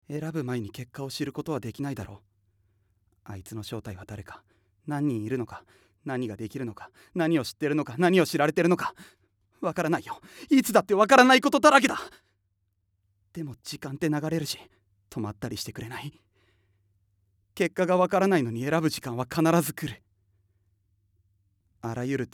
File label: male voice; セリフ